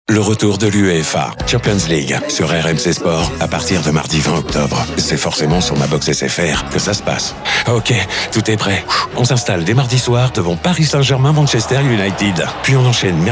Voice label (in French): radio; SFR; RMC Sport